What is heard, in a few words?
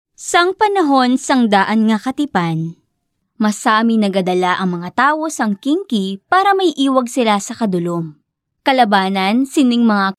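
Hiligaynon Female Voice Over Voice Sample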